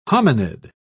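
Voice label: "hominid", British English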